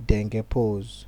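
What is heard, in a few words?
"dengepose", Nigerian Pidgin, male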